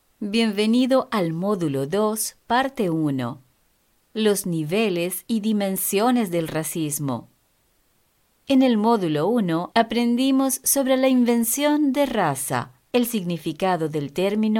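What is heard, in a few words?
Spanish female; 西班牙语女; 外语; 小语种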